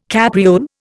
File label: "capriole", Indian